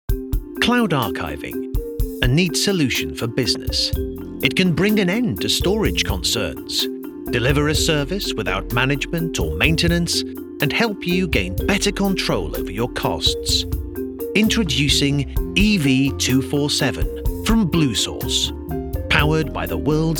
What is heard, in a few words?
explainer; Explainer Video